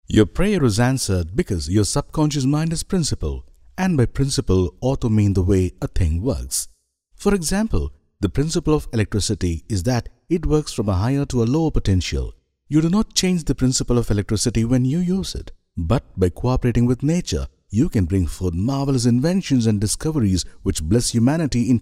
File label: Audio Book